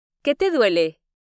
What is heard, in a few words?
Spanish Listening; Spanish Test